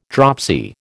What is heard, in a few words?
"dropsy", American